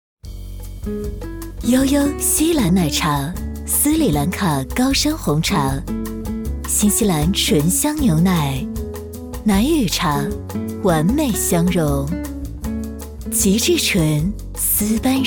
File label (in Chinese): 女 广告 锡兰奶茶 磁性大气温暖; 悠悠锡兰奶茶